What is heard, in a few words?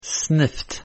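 "sniffed", English word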